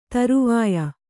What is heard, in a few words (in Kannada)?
"ಧ್ರೌವ್ಯ", Kannada